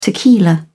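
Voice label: "tequila", British English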